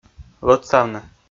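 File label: "лӧдсавны", Komi, male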